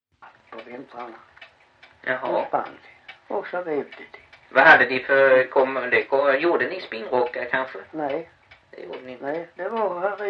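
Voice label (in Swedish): Backaryd Blekinge, Svenska sagor dialekter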